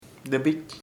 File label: "cartilage hueso", chamacoco